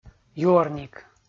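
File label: "юӧрник", Komi, male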